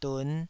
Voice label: "dun5", Taiwanese

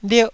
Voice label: "lierh1", Taiwanese syllable

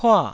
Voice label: "pua4", taiwanese

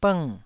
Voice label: "bng4", taiwanese Syllables

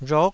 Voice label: "rhuk9", taiwanese

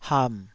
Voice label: "ham3", Taiwanese syllable

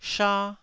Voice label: "sha1", taiwanese

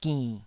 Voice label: "ginn3", taiwanese

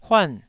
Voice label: "huan4", taiwanese

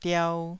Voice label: "diau2", taiwanese syllable

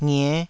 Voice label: "ngie5", taiwanese syllables

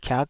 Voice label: "kiak5", taiwanese syllables

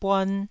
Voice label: "buan1", taiwanese syllables

